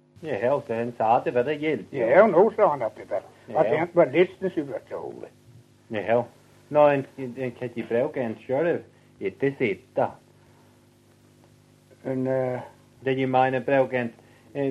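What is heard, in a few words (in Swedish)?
Överkalix Norrbotten, dialekter, svenska sagor; Folkminnen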